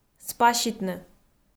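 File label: "спаситны", Komi, female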